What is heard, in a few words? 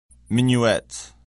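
"minuet", English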